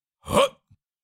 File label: Cartoon lion voice "jump"